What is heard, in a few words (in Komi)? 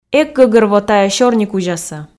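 "эг гӧгӧрво тайӧ сёрникузясӧ", Komi, female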